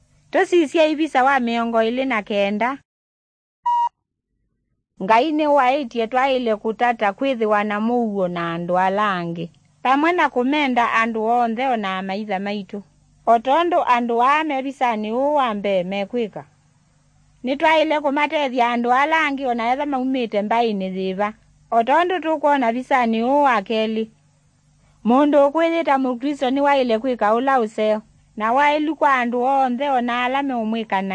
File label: kikamba drama; good news; bible